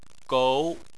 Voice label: "gou2", Chinese